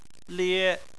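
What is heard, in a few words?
"lie", Chinese, first tone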